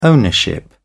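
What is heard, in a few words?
"ownership", British English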